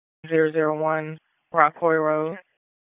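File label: Raleigh Police Southeast District Dispatch, p25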